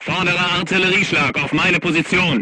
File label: voice message art command oneself, crew tanks german